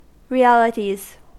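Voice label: "realities", US English; pronunciations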